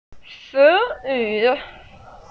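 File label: "ci2yu3", Mandarin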